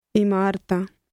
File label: buildings, punjabi; English: buildings